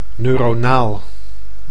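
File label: "neuronaal", Dutch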